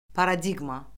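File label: "paradiqma", Azerbaijani; Orfoqrafiya Lüğəti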